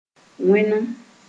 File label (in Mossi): "wẽnem", Moore